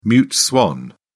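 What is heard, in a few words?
"mute swan", British English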